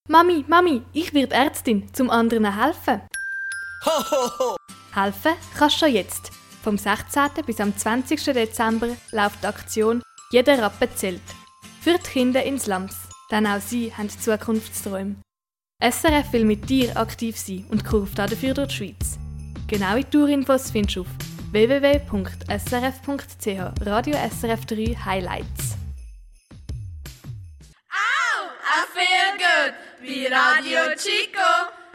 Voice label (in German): Jingle; Glückskette